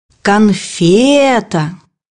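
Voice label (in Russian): "конфета", Russian; bukvar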